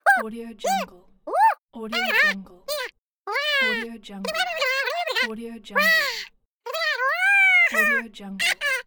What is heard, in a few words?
the cartoon minion voice